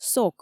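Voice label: "сок", Komi, female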